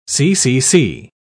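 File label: "ccc", British English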